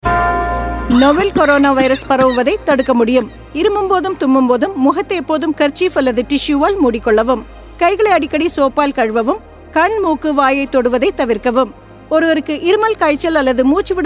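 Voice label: Cough Radio Tamil, Radio spot; Puducherry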